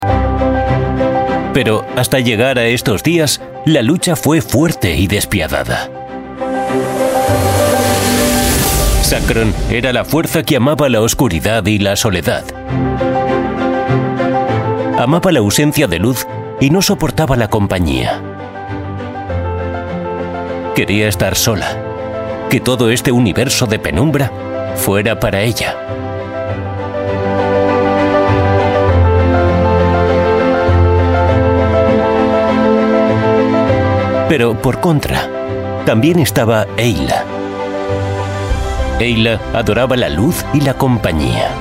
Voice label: spanish voice over male documentary